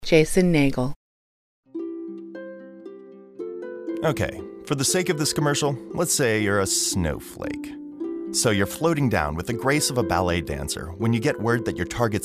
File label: male voice